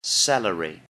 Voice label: "celery", English; VEGETABLES

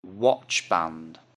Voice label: "watchband", English; Jewelry and Cosmetics

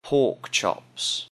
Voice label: "pork chops", English; Common Prepared Foods

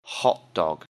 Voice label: "hot dog", English; Common Prepared Foods

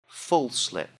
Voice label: "full slip", English; Underwear and Sleepwear

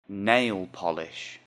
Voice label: "nail polish", English; Jewelry and Cosmetics

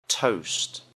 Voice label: "toast", English; Common Prepared Foods

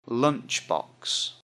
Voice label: "lunch box", English; Everyday Clothes

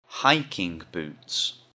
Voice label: "hiking boots", English; Outdoor Clothes

